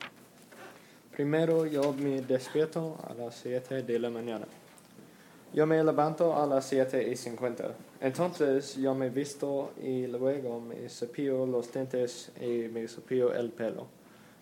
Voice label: spanish paragraph

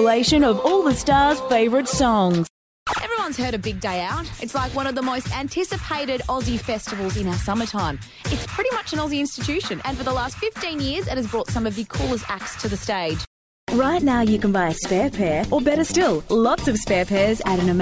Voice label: voice overs

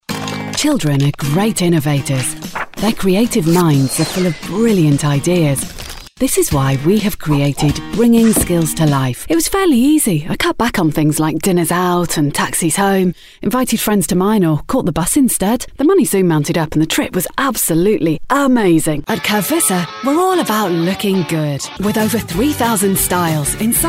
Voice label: commercial reel

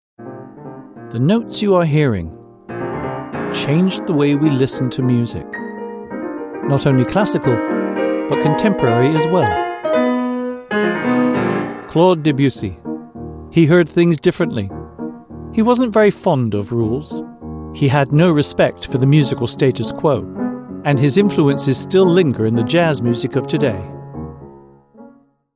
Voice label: documentary; debussy

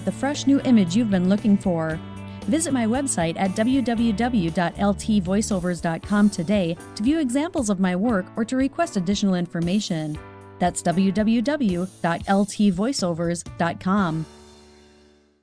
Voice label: commercial genuine uplifting voice

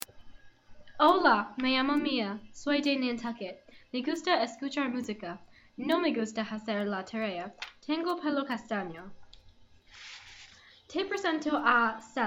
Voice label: Spanish

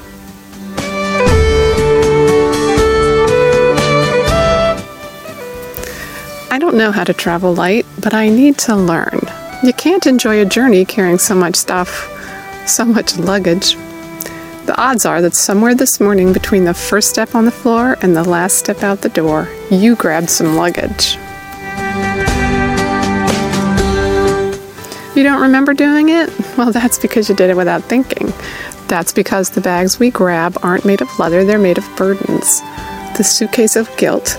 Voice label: audio devotional

